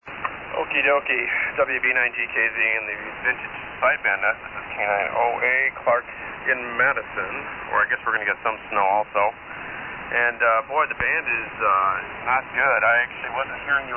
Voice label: Hallicrafters HT-32B SX-115 & SB-200 Amp EV-638 Mic; Madison,Wi.